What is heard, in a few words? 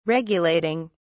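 "regulating", British English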